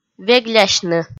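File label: "веглясьны", Komi, female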